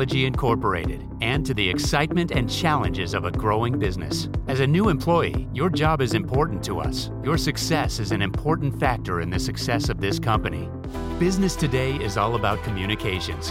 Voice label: narration with music